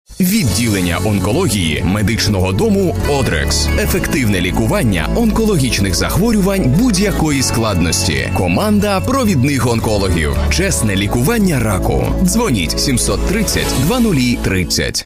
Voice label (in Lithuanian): Ukrainieciu balsas, vyras